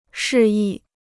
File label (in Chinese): "示意", Chinese